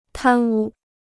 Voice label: "贪污", Chinese

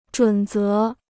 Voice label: "准则", Chinese

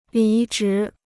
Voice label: "离职", Chinese; 离职 (lí zhí); to leave one's job; to resign

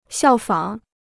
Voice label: "效仿", Chinese

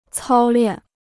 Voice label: "操练", Chinese

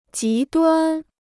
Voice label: "极端", Chinese

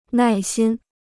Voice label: "耐心", Chinese

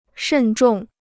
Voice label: "慎重", Chinese

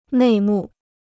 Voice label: "内幕", Chinese

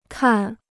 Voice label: "看", Chinese

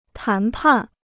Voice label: "谈判", Chinese

